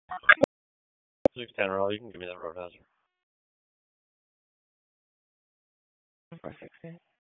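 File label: Police Southwest District Dispatch; Raleigh; wake p25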